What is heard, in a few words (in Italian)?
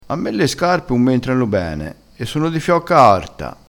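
"fiocca", vocabolario dialettale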